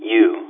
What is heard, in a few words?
"you" phone, phonemes